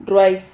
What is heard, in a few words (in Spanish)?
"rruais", Silabas